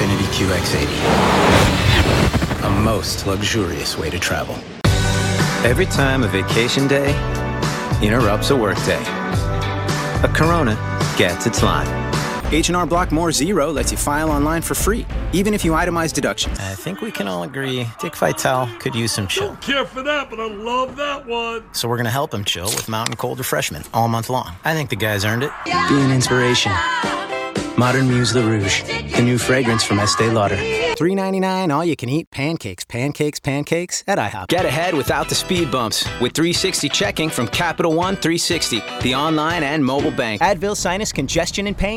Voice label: Voiceover Commercial Men; New York